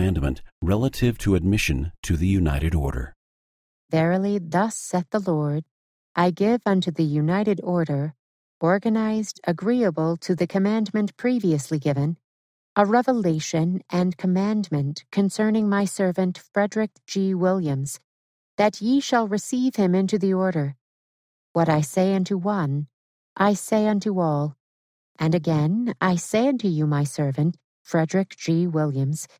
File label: section female voice eng